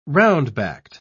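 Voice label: "round-backed", British English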